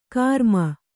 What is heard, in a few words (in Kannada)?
"ಗರಮ್", kannada